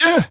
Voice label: Pain, Battle Barks; Warframe; BaroDefense